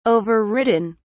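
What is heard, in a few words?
"overridden", English, British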